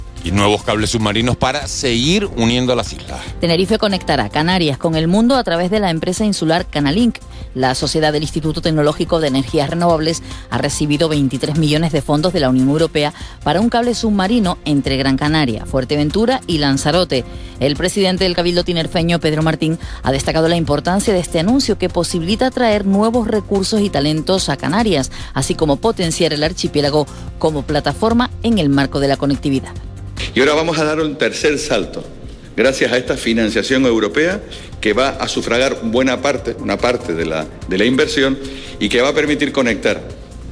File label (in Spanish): Radio